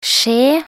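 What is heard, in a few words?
"skje", Norwegian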